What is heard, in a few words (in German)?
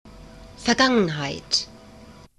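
"Vergangenheit", German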